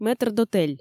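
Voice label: "метрдотель", Komi, female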